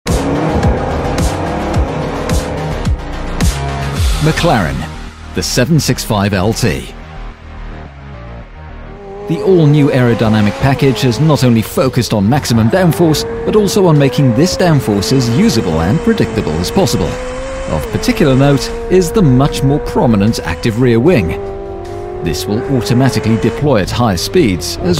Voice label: english british automotive